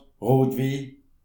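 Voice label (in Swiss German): "rotwin", Alsatian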